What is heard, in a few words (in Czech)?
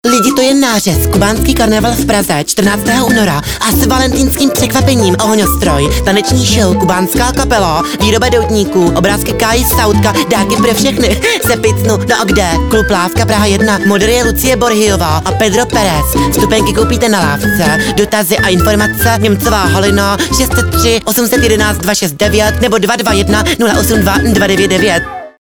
rozhlasovy spot noche cubana